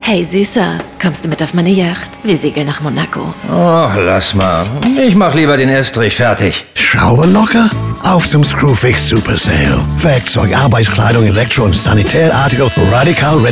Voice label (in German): Funkspot; Screwfix; Pflichtbewusst; bunch sound; Berlin; Funkspotproduktion